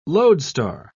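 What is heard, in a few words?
"loadstar", British English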